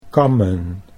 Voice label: "kammen", Dutch

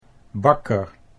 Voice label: "bakker", Dutch

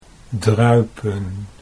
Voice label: "druipen", Dutch

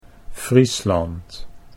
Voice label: "Friesland", Dutch

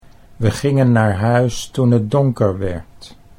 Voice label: "we gingen naar huis toen het donker werd", Dutch

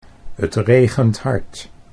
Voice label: "t regent hard", Dutch

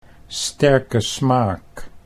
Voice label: "sterke smaak", Dutch

